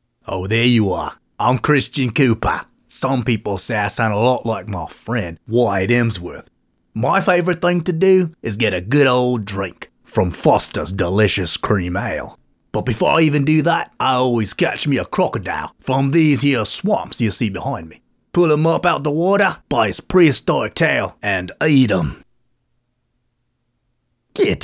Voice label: Character Range; Reel